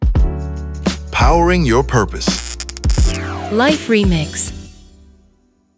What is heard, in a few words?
sweeper